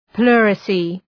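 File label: "pleurisy", English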